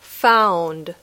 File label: "found", English